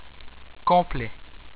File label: "Complet", french